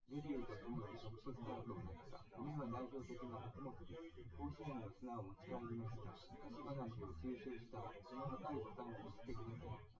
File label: test male synthconv 250ms 1m sim; SiSEC08; SiSEC underdetermined